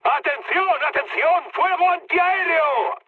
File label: damaged aa, mood high, speech; War Thunder